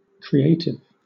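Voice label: "creative", British English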